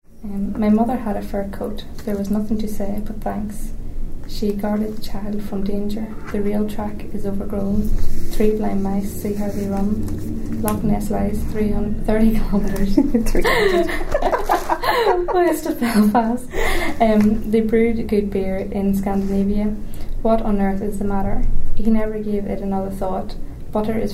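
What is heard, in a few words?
passage, dialects ni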